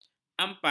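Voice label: "ampai", Malay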